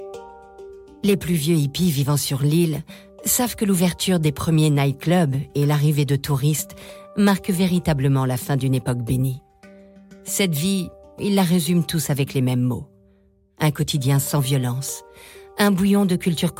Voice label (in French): narration; ibiza